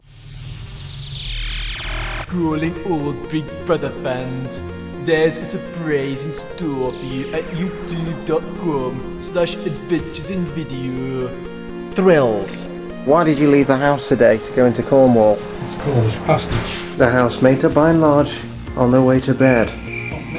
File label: commercial; real house